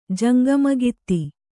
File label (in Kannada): "ಜಂಗಮಗಿತ್ತಿ", Kannada